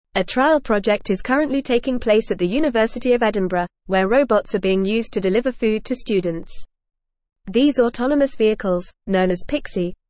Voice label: English Advanced FAST Reading; Autonomous Food Delivery Robots Transforming University Campu